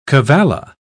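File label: "cavalla", British English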